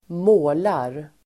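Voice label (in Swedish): "målar", Swedish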